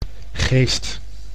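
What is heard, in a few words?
"geest", Dutch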